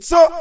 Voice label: GUY, Dub Vox; Hip Hop Nation